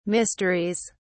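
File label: "mysteries", British English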